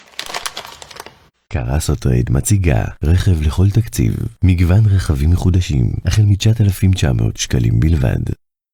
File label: Hebrew automotive